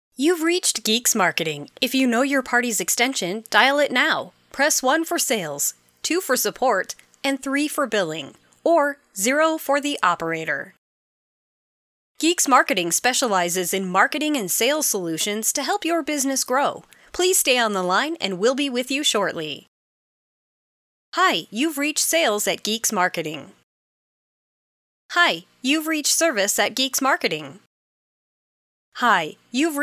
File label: Female Voiceover